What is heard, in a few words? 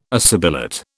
"assibilate", British